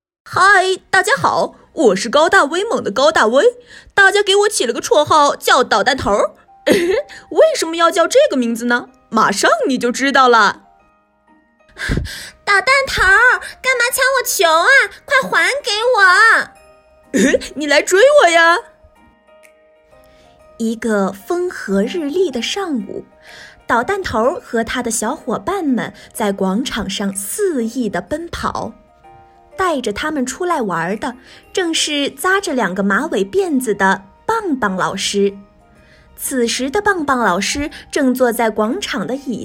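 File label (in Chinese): 青蛙王子 节选, 女声 普通话 有声读物 声音 干净 明亮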